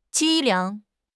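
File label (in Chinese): "凄凉", Chinese; HSK; HSK6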